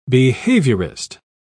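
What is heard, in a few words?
"behaviorist", en, uk British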